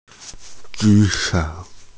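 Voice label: "ju3 shou3", Mandarin Chinese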